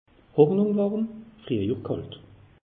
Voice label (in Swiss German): "hornung", alsace; meteo